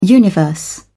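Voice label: "universe", English, British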